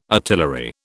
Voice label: "artillery", British

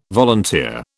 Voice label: "volunteer", British

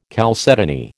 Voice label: "chalcedony", American

